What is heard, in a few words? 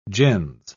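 "gens", British English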